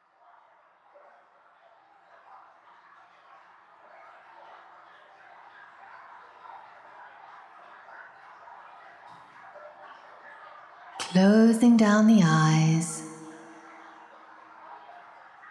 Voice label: Loving Kindness Med w Small Stream Sounds